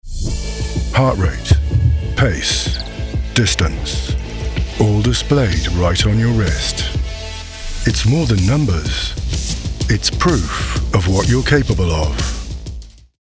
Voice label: Commercial, Fitness Tracker Watch / Confident, Inspirational, Motivational, Dynamic